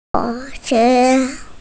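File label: "sound", hearing impaired; sheng1yin1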